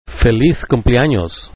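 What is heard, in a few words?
happy birthday spanish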